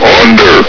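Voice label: "under!", male; hl2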